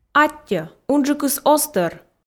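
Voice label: "аттьӧ унджыкыс оз тӧр", Komi, female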